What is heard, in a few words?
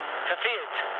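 German bomb failure mood low, speech; War Thunder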